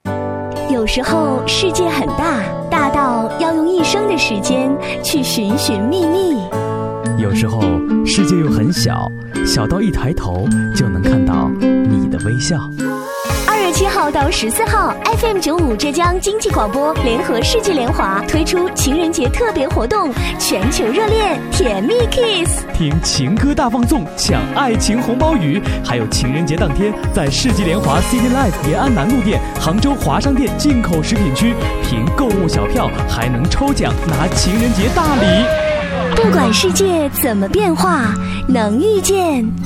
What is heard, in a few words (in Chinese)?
情人节宣传